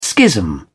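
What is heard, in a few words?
"schism", British English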